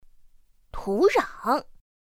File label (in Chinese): "tǔrǎng", pinyin; pinyin2; pinyinji